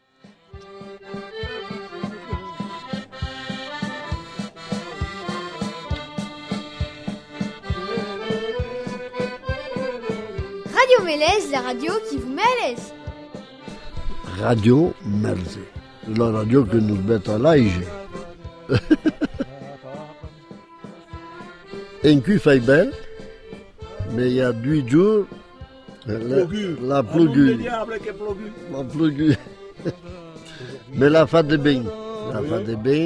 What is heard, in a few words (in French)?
atelier patois